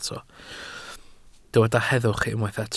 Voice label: "heddwch", Welsh

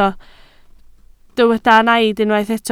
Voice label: "naid", Welsh

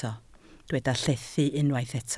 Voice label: "llethu", Welsh

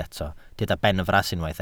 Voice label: "benfras", Welsh

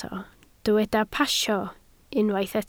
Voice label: "pasio", Welsh

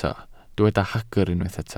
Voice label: "hagr", Welsh

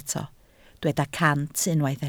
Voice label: "cant", Welsh

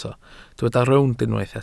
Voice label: "rownd", Welsh

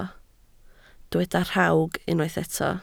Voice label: "rhawg", Welsh

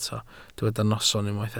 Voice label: "noson", Welsh

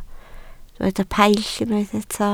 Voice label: "paill", Welsh

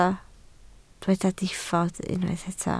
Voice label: "diffodd", Welsh

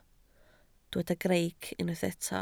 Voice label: "greic", Welsh

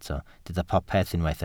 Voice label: "popeth", Welsh

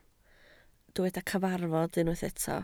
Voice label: "cyfarfod", Welsh

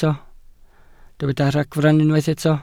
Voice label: "ragfarn", Welsh

